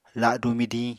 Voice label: "ladu midiñ", Apatani

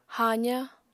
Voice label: "haaza", apatani

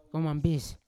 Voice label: "kaumambis" nine, Yawa

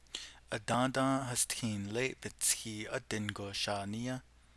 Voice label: navajo particle; Yesterday some bald headed man of unknown ident

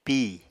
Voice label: "pí", Apatani

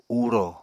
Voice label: "uro", Apatani

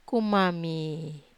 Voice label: "kumami", Wamesa

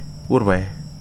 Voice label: "lie", Wahgi; lae unitech

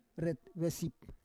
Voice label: "ret vesip", Roon

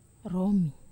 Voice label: "romi", Wamesa

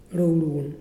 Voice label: "cold", Muyuw; Lae Unitech

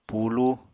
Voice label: "pulúu", apatani

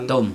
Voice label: year, Chug

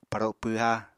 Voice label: "paro pwha", apatani

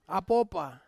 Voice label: "apopa", butterfly, Ansus

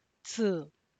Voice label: ten, Santiago Matatlán Zapotec; (Spanish) diez

